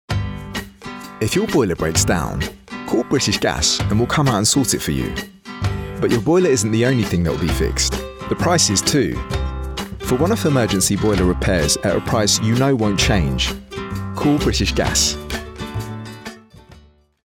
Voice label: male english commercial; british gas; southern and northern england